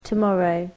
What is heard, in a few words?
"tomorrow", English